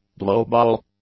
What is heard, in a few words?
"blowball", English; nouns